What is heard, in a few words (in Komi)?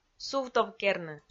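"сувтовкерны", Komi, female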